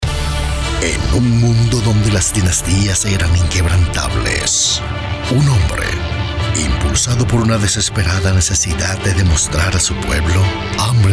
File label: Epic Movie Trailer